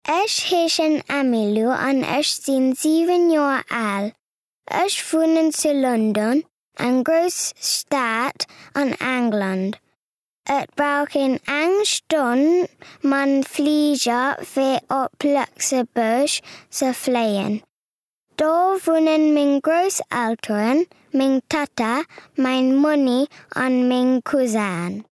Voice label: Intro Luxembourgish